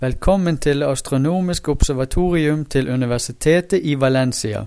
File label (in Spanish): noruego